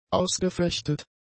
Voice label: "ausgefechtet", German; adjectives

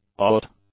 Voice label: "aught", English

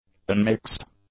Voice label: "unmixed", English; adjectives

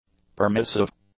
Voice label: "permissive", english; adjectives

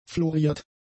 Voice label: "floriert", German; adjectives

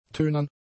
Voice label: "tönern", German; adjectives

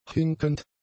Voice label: "hinkend", German; adjectives

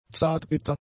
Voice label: "zartbitter", German; adjectives

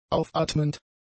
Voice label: "aufatmend", German; adjectives

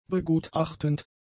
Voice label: "begutachtend", German; adjectives

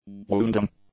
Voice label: "wounding", English; adjectives

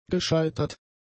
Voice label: "gescheitert", German; adjectives

